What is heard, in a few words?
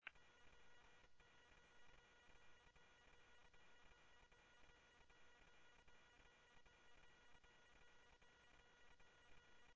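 police radio dispatch, Scottsdale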